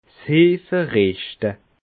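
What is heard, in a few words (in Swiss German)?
"heefe", Alsatian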